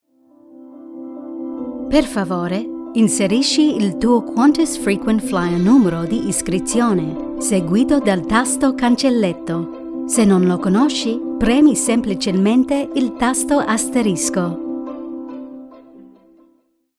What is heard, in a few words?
Italian; Qantas